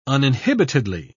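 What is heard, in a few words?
"uninhibitedly", British English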